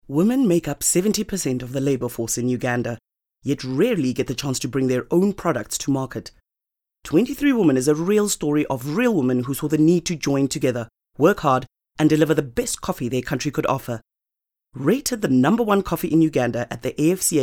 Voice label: English Corporate

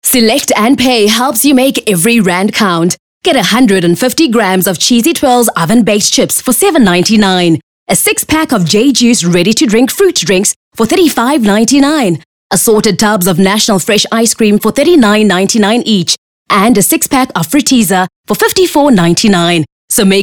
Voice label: English hard sell

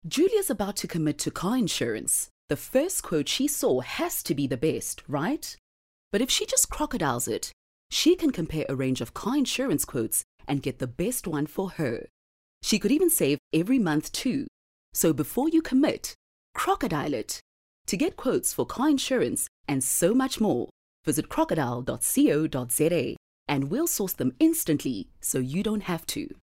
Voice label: English Corporate

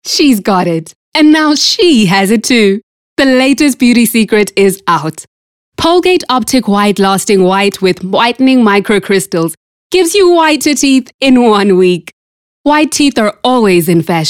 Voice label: English Bright

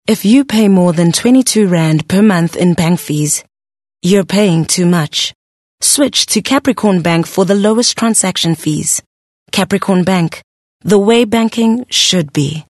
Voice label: English Corporate

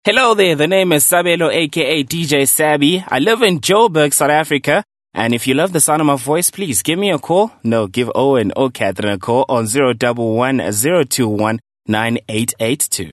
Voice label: English conversational

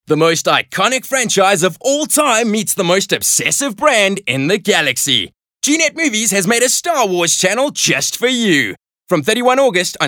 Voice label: English Skill Promo

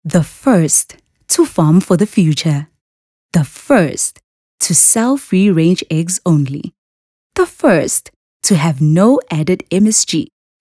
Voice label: English Soft Sell; OSM Talent

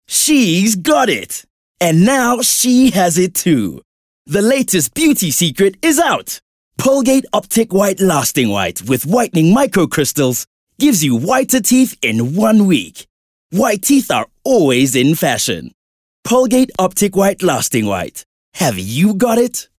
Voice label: English Bright; OSM Talent

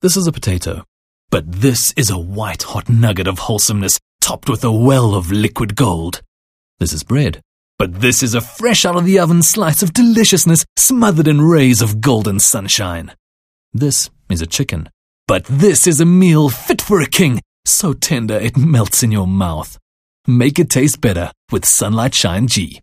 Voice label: English Conversational Expressive

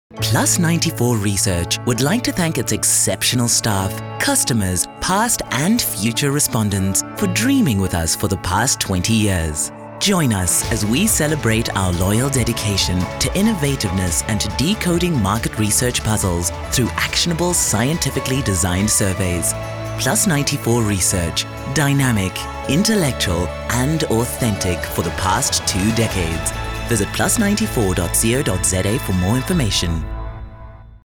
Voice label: English Conversational; OSM Talent

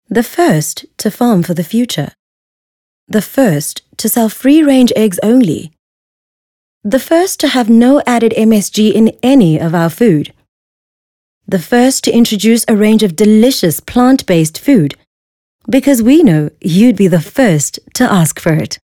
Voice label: English Soft Sell; OSM Talent

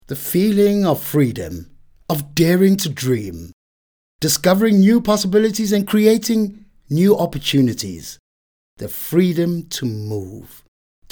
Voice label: English soft sell; OSM Talent

